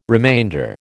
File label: "remainder", American